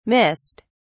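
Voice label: "missed", British English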